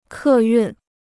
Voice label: "客运", Chinese

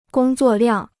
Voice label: "工作量", Chinese

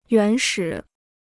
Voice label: "原始", Chinese

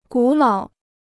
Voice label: "古老", Chinese

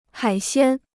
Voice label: "海鲜", Chinese

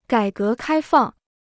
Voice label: "改革开放", Chinese

